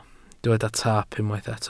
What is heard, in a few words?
"taap", Welsh